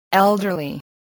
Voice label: "elderly", English